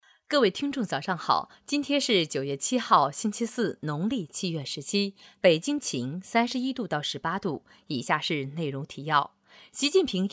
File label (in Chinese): 女,新闻